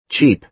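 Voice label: "cheap", American English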